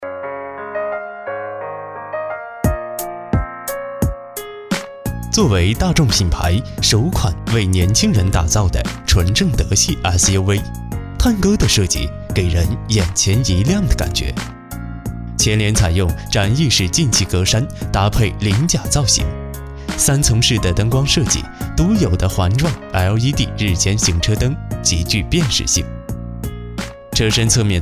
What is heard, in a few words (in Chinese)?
男, 旁白, 大众suv